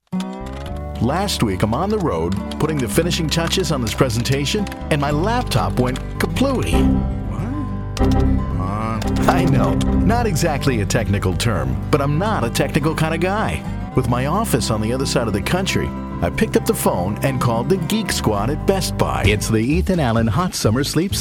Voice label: Comm Demo